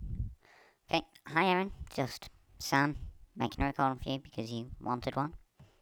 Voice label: voice, Accent